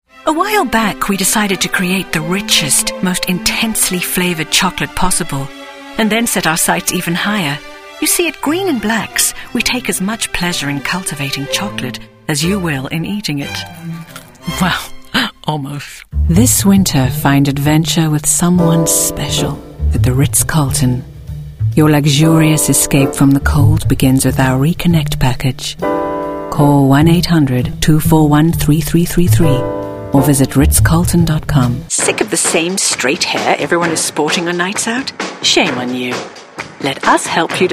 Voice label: english uk female commercial